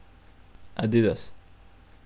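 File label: Portuguese, male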